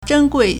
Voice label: "zhen1gui4", Chinese